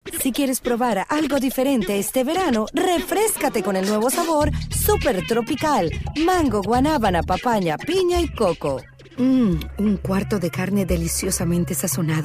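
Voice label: Spanish commercial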